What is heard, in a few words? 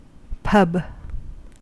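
"pub", American English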